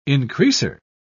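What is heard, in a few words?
"increaser", English, British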